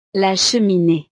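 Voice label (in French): "la cheminee", French; navidad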